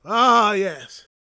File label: preachin, gospel preacher